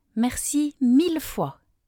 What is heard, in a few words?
"merci mille fois", French; thank you